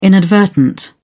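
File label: "inadvertent", British English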